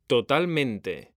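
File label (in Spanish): "totalmente", Spanish; mekanta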